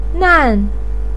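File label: "nan", Mandarin pinyin, fourth tone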